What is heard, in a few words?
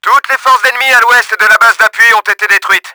WEST ATTACK CLEARED, french; Battle Engine Aquila; XBOX